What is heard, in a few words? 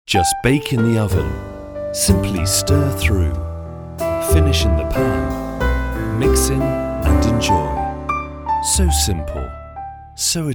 english uk male commercial